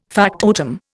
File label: "factotum", Indian